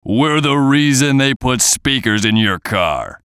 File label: VOICE COMICAL; Radio Voice -Speakers In Car; Funny Sound